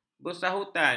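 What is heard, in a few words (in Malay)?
"bersahutan", Malay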